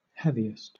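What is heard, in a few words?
"heaviest", English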